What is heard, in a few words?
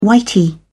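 "whitey", British English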